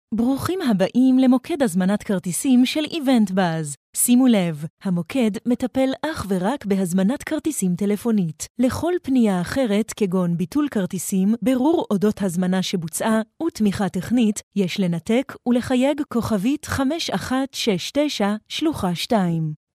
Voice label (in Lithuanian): MOT Hebraju balsas, moteris